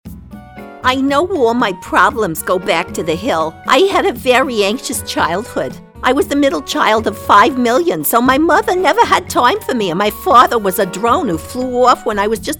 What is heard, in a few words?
New Jersey neurotic; Antz